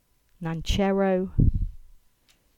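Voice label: "Nancherrow", English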